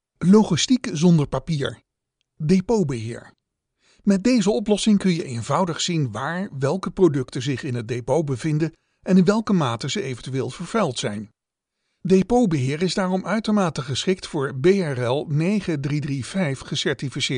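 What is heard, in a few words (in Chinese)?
荷兰语男_外语_小语种_样音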